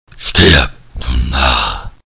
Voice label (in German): Narr